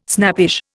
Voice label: "snappish", Indian